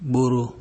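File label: "gboro", Nigerian Pidgin, male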